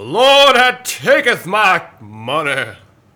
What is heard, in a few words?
Preacher Lose; blackjack